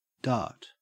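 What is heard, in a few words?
"dart", Australian English; pronunciations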